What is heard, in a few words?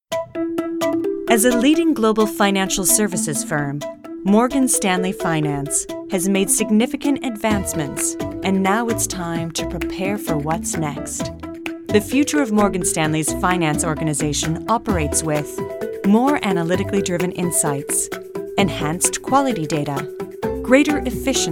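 corporate voice over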